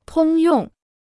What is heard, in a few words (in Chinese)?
"通用", Chinese